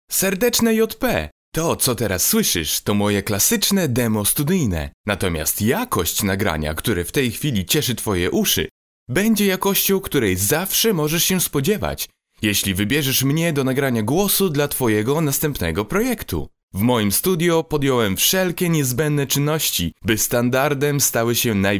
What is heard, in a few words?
male polish voice over talent character